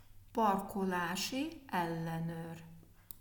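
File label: "parkolasi ellenor", Hungarian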